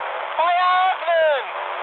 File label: attack position, mood high, speech ge; War Thunder